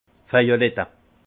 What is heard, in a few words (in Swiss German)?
"vejelettel", alsace; violette